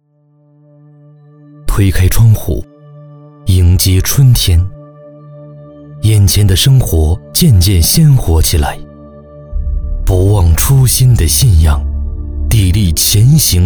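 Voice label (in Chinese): 国语 男声 专题片 大气浑厚磁性 沉稳 娓娓道来; 疫情下的担当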